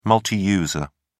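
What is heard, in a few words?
"multiuser", British English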